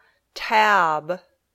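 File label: "tab", English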